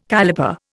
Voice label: "caliper", Indian